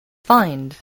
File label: "find", spelling